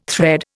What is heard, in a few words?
"thread", Indian